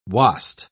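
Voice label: "wast", British English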